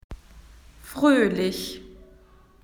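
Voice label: "happy", Australian English; PictureDictionary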